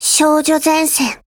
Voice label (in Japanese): TITLECALL JP